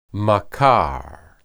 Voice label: "makar", Hebrew

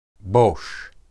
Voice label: "bosh", Hebrew

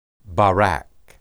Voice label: "barak", Hebrew

